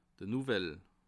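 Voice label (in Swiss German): "novell", Bas Rhin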